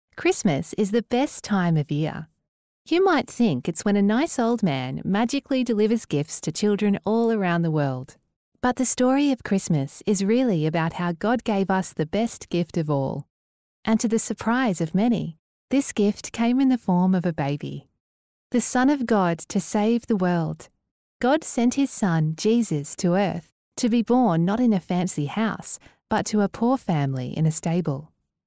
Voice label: English Australian animation

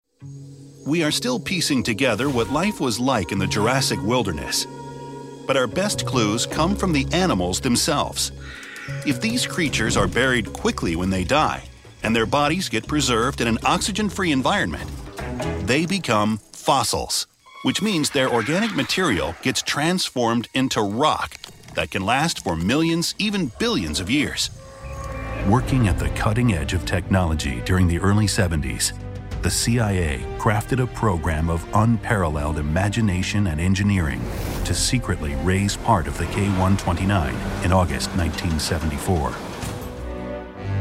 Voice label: english american narration

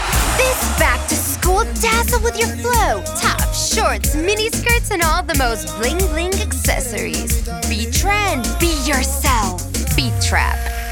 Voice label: english american promos

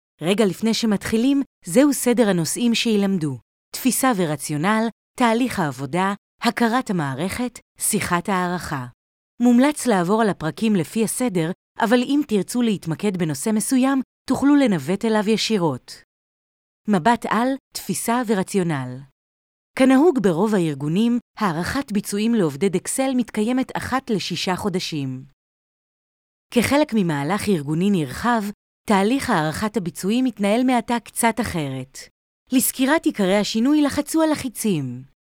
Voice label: Hebrew e-learning

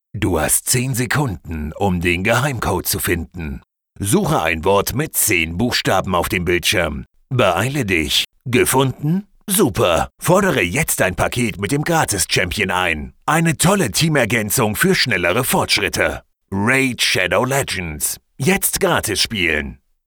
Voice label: german movie trailers

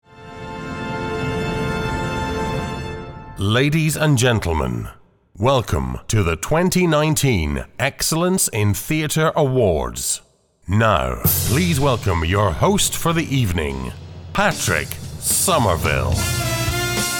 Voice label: english scottish others; Royaume-Uni

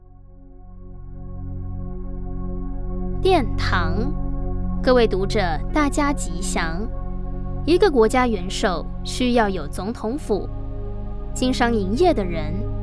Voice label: taiwanese audiobooks; Taiwanese Mandarin speaker

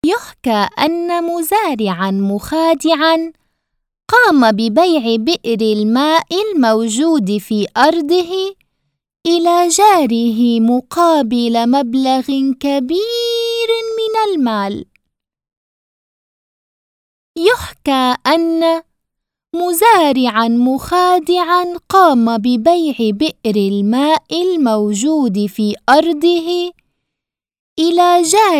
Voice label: Arabic animation

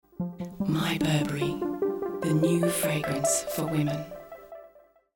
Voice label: English Australian promos

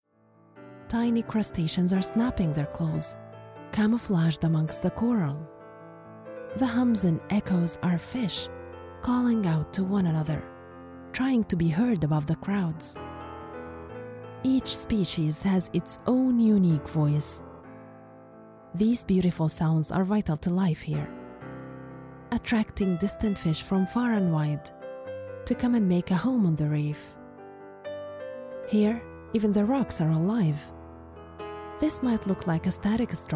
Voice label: english narration

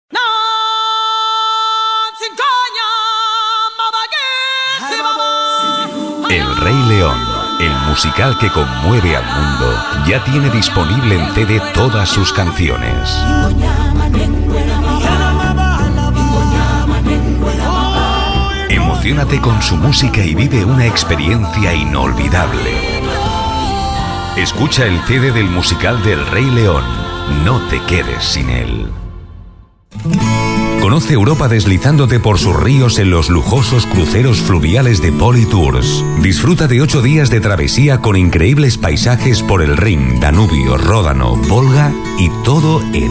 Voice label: spanish promos

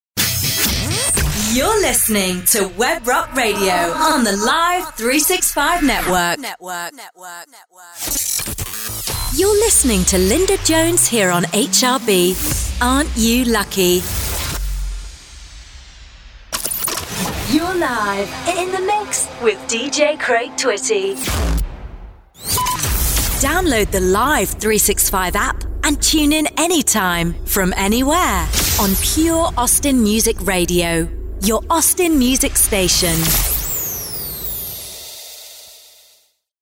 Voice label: english british promos

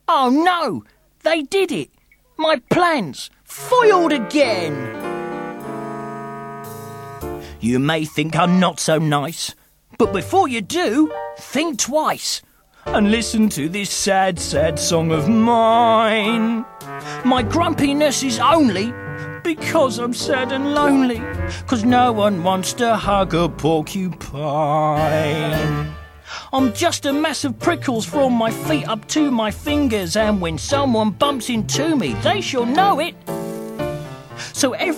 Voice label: english british cartoon animation

